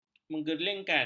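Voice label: "mengerling", Malay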